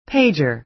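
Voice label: "pager", American English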